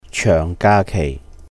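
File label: "chèuhng ga kèih", Cantonese; a long vacation; 長假期; holidays and festivals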